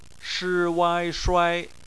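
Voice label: "shuai" bopomofo, Chinese; phonetics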